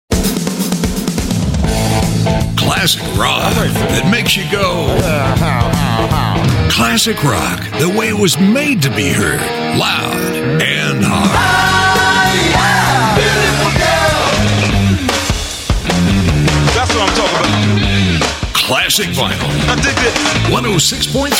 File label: English American promos